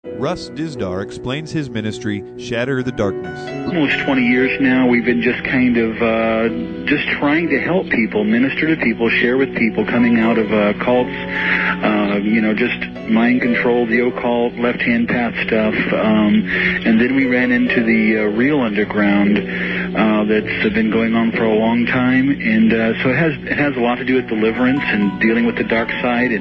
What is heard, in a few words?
radio spot; shatter